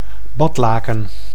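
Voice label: "badlaken", Dutch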